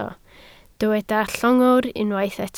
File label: "llongwr", Welsh